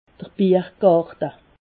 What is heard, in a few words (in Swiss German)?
"biergarte", Alsace